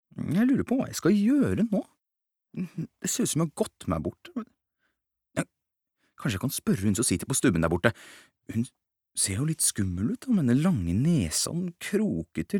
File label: no dub character